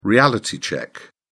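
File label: "reality check", British English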